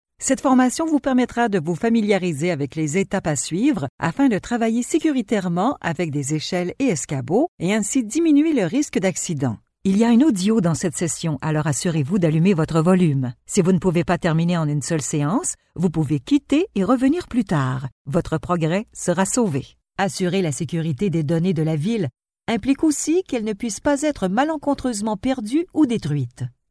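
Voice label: French Canadian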